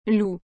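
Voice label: "loup", French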